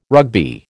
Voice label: "Rugby", American